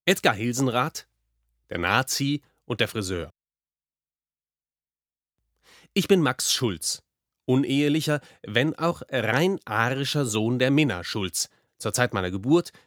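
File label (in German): erzaehlung, deutsch männer; sprecher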